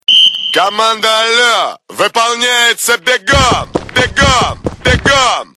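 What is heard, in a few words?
Order, and carried out at a run! (sergeant); Komanda ale vypolnyaetsya begom!; serzhant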